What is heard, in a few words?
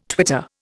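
"twitter", Indian English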